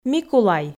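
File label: "микулай", Komi, female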